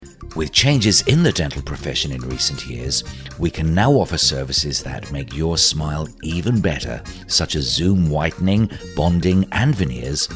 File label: On Hold, Male voices